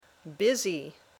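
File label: "busy", English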